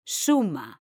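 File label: "suma", Spanish, female; mekanta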